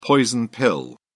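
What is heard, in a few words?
"poison pill", British English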